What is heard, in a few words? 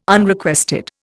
"Unrequested", Indian